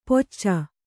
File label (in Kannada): "ಪೊಚ್ಚ", kannada